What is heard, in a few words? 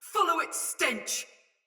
Sight Lost Shout Soldier Mace Agent Female